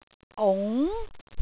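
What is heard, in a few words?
"ung2", Cantonese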